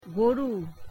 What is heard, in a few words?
bengali cow